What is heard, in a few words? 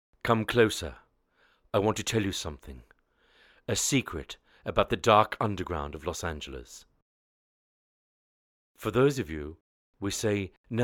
English